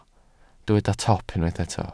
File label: "top", Welsh